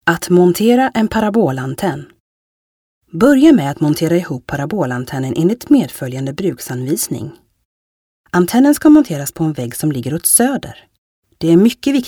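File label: Swedish elearning, kvinne, svensk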